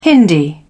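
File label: "hindi", British English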